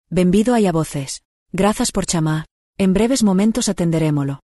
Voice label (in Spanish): Tono profesional, Voz mujer con I.A., Locución en gallego y tono profesional; Vivienne